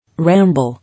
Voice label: "Ramble", English

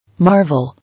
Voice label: "Marvel", English

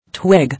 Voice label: "Twig", English

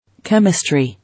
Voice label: "Chemistry", English

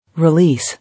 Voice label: "Release", English

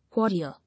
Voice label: "Warrior", English

